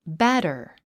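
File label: "batter", English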